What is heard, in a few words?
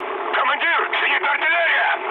damaged aa, mood med, speech ru; War Thunder